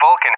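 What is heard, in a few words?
"Vulcan", English; USA; AirDefence; DCS; Allied Flight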